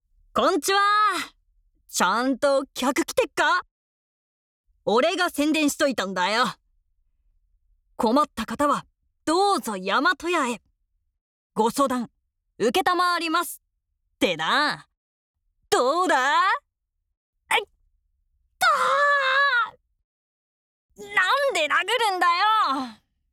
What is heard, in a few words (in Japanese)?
少年